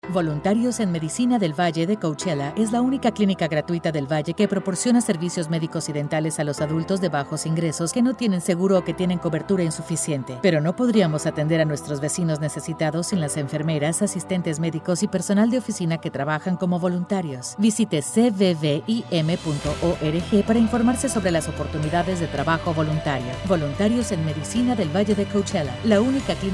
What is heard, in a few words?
Volunteer radio spot spanish